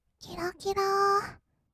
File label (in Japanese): きらきらー, kawaii voice; ASMR; 色々なワンショット; 1200 kawaii voice!!